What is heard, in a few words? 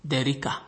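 "derica", Nigerian Pidgin, male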